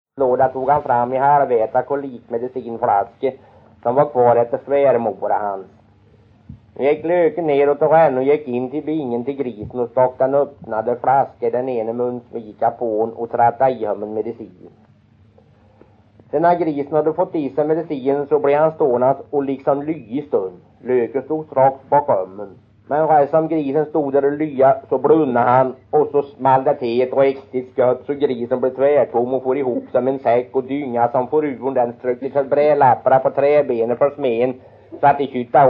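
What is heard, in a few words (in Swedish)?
Kroppa Värmland, dialekter; Folkminnen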